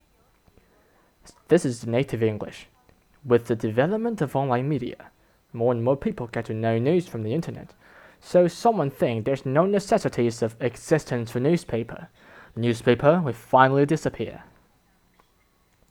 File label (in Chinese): 英音